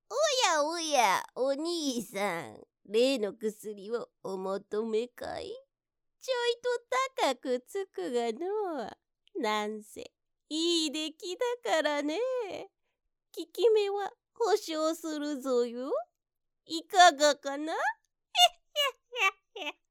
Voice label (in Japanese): 気味の悪い老婆